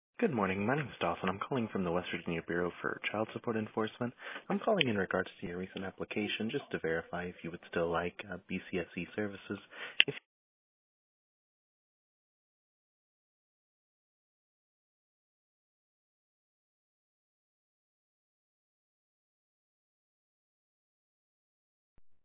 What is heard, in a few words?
Voicemail